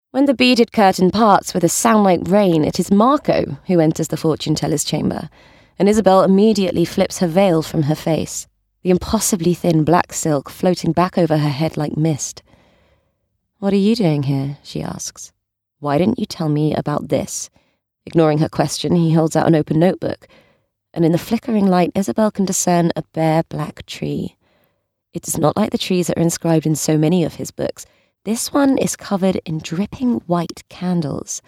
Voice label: Audiobook; The Night Circus